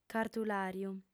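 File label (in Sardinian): "cartulàriu", female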